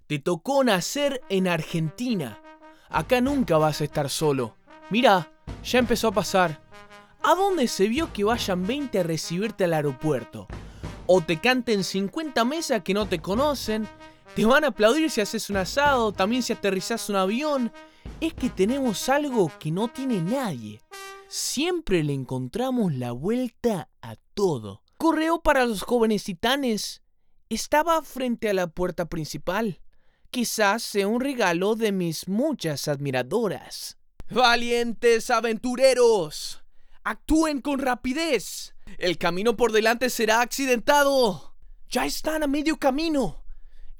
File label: SPANISH